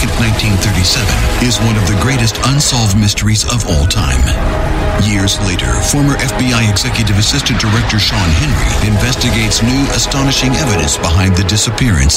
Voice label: US English Program Narration History

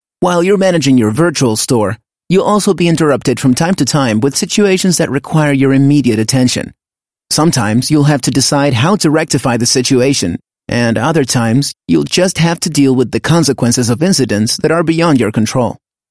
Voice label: English Spanish eLearning

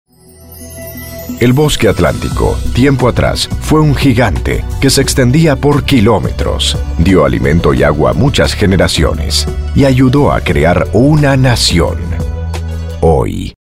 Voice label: Latin Spanish Neutral Voice Sample

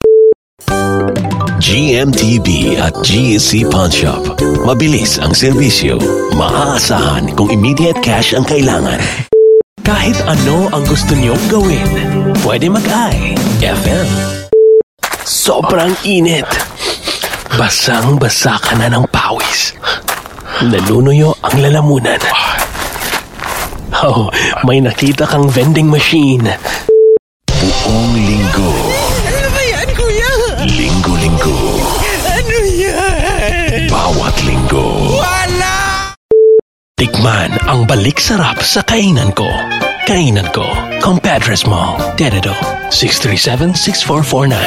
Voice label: Tagalog

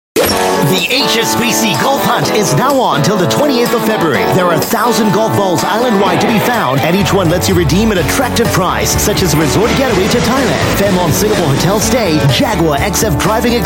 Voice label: Asian English; HSBC

